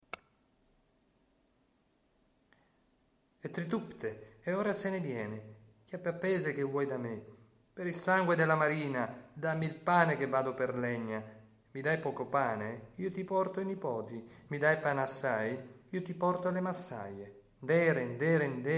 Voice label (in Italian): recitato Tarantella con strofette Rodi; RODI GARGANICO